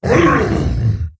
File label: Wound Crit, Creature; Prophet Tharonja